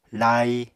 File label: "lai", Apatani